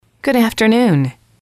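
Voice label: good afternoon, English, female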